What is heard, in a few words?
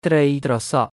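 "ត្រី ត្រសក់", Khmer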